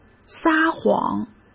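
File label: "撒谎" Mandarin Chinese; chinese flashcards; hsk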